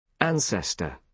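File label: "ancestor", British English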